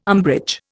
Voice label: "umbrage", Indian

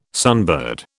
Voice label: "sunbird", British

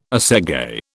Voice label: "assegai", British

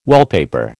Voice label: "wallpaper", American

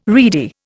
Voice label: "reedy", Indian

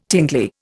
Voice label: "tingly", Indian

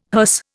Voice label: "hearse", Indian

